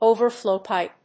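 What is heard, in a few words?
"overflow pipe", English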